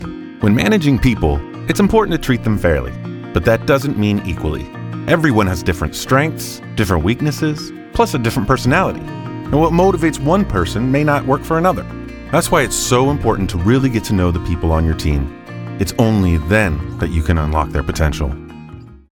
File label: Narration; Managing People